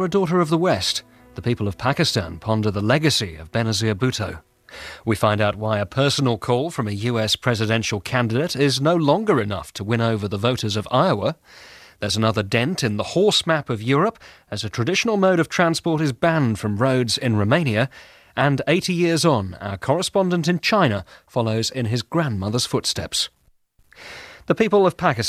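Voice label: received pronunciation, voice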